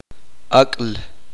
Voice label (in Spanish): uspanteko chica